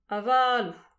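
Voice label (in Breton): "avalou", Breton; petit precis de prononciation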